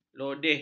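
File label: "lodeh", Malay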